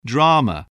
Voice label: "drama", spelling